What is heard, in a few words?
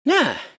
happy; dota; tinker